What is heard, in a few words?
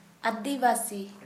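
tribe, Santali, female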